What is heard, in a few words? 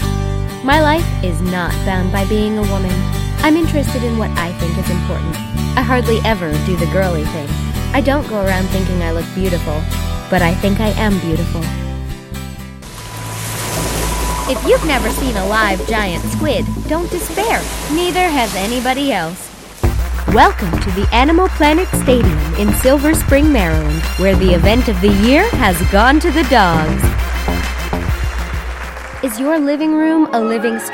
commercial TV